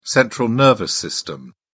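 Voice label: "central nervous system", British English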